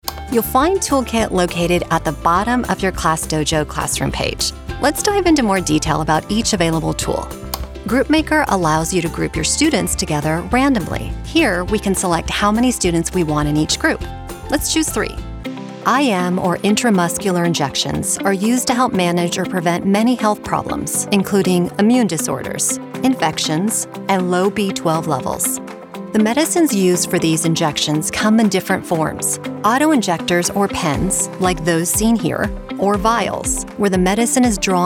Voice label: Narration